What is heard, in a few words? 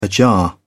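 "ajar", British English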